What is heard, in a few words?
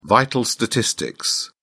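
"vital statistics", English, British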